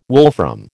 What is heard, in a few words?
"wolfram", American